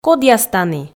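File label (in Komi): кодъяс тані, Komi, female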